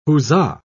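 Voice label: "huzza", British English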